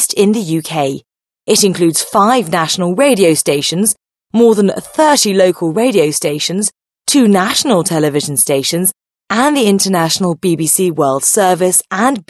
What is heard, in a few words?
british female